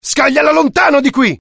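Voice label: fear grenade, IT; mohaa; dialogue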